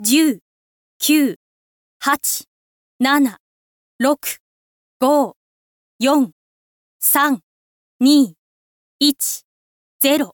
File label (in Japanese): info lady countdown